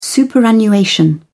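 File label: "superannuation", English, uk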